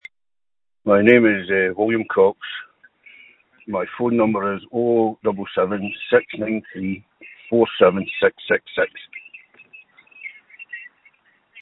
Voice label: call recording